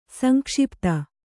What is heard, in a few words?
"ಸಂಕ್ಷಿಪ್ತ", Kannada